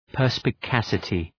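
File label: "perspicacity", English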